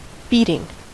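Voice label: "beating", US English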